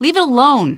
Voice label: answer, female; Garry's Mod; hl2

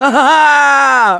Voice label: Hurt Critical, russian; Gambler

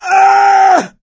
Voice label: incapacitated injury, voice; Left 4 Dead 2; coach

